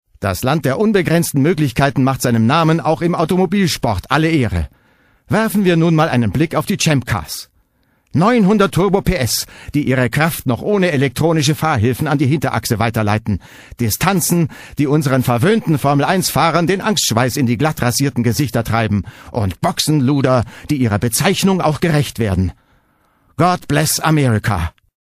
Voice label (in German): Kommentar Energisch